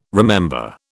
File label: "remember", British